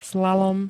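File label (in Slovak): "slalom", Slovak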